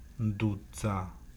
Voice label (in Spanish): Roncha, San Juan Mixtepec Yucunani